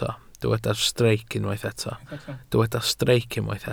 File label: "streic", welsh